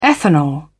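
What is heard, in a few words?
"ethanol", British English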